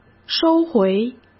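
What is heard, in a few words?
"收回", Mandarin Chinese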